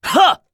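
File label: skill, Japanese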